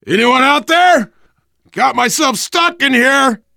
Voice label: call for rescue, survivor voice biker

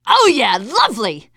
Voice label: taunt, teen girl

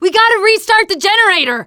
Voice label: teen girl

